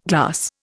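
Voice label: "glassie", Indian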